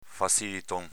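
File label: "faziliton", Venetian dialect; agg. facilone, superficiale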